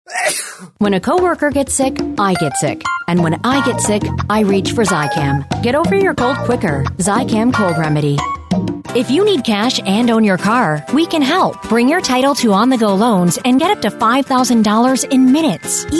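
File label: English, American, female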